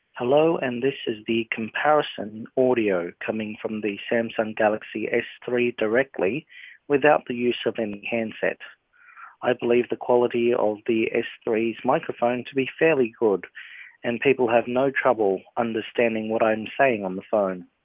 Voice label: SIP Test Samsung Galaxy S3 Direct